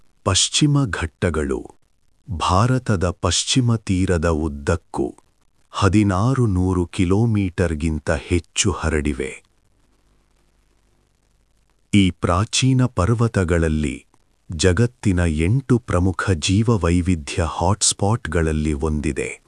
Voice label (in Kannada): documentary, Kannada